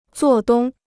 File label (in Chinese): "做东", Chinese